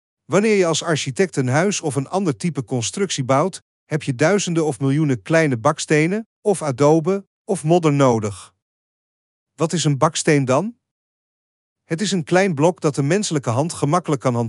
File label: DUTCH; Casa Terracota